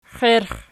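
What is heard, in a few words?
"xierx" nominative, Archi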